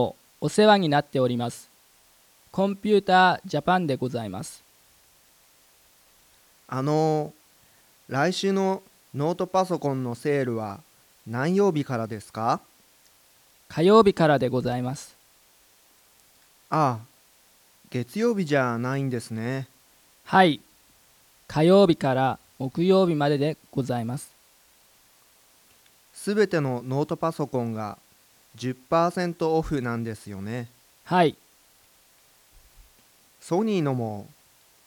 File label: Conversation